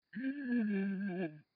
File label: Muffled